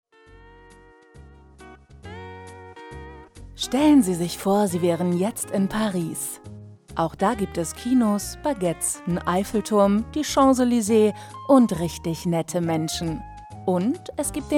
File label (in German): werbespot; thalys